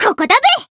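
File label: Voice finish, Japanese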